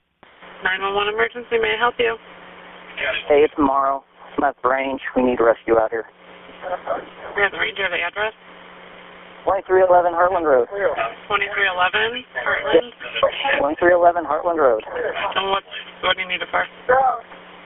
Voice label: 911 call